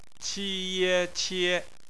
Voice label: "qie", Chinese phonetics

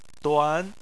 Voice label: "duan2", Chinese

